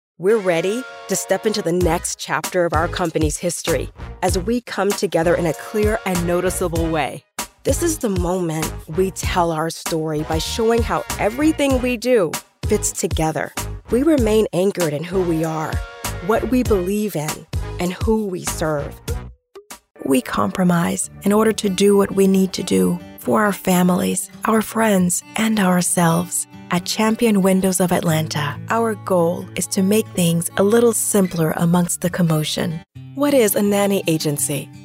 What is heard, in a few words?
Narration